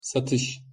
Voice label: pronunciation tr satis

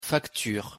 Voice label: "facture", fr

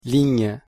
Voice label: pronunciation fr ligne

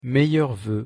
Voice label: meilleurs voeux, pronunciation fr

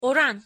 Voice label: pronunciation tr oran

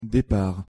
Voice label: "depart", fr

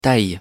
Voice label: "taille", fr

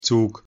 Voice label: "zug", de

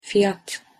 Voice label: "fiyat", tr

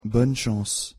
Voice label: bonne chance, pronunciation fr

